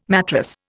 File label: "matrass", Indian